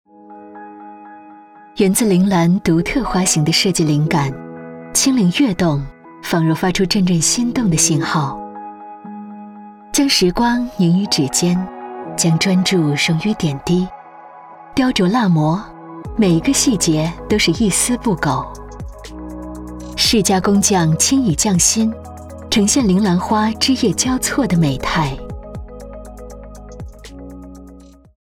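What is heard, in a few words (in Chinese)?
广告大气高级钻石; 周大福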